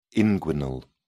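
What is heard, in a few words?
"inguinal", British English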